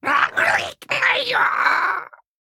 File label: Male Kobold Death